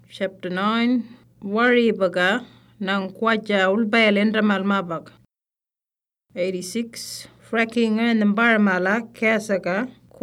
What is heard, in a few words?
Western Arrarnta; Fracking